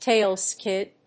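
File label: "tail skid", English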